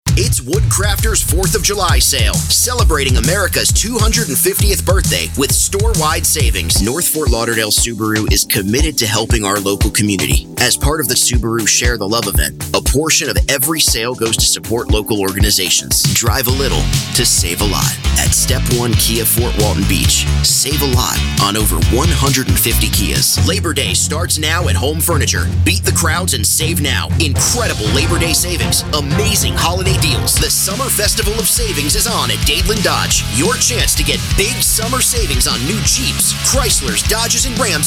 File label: Commercial, male